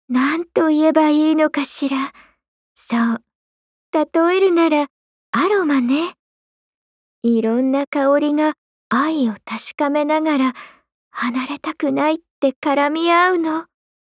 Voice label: voice jp; Rebecca